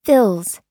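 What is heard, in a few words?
"fills", US English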